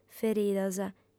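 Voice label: "feridas", Sardinian, female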